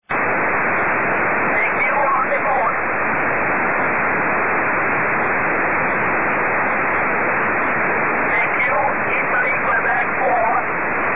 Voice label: EME 10GHz, SSB; Italian EME 2004